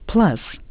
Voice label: "plus", en; PBXNSIP